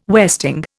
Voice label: "westing", Indian

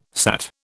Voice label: "sat", British

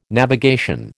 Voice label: "navigation", American

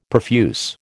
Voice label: "profuse", American

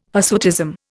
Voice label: "hirsutism", Indian

